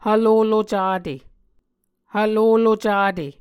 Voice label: "hvlolo cate", Muscogee